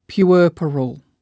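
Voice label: "pueperal", English word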